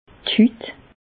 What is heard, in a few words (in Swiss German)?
"d' Hüt", Bas Rhin; medecine; peau